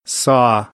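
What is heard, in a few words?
"saw", American English; AmericanBritish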